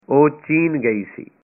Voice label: punjabi she visited China